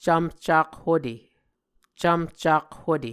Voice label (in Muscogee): "cvmhcakhute", Muscogee